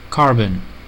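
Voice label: "carbon" English, American accent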